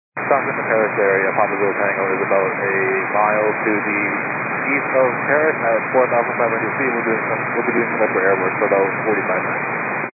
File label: 122 925MHz, scanner radio